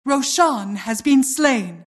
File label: announcer Roshan slain